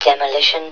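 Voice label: "demolition", male; hl1mp; XDM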